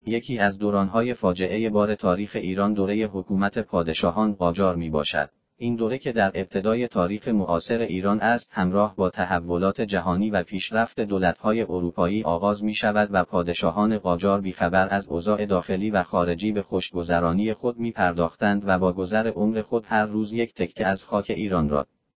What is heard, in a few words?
male